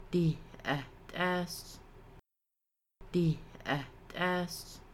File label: You are frying chicken, Kaska